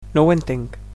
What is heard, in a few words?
"no understand", Catalan